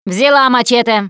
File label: melee weapons, russian, Survivor Voice Producer